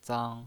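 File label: "zang" Mandarin Chinese pinyin voice, first tone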